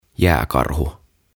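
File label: "Jääkarhu", Finnish; Polar Bear; OTAVA KONSERNI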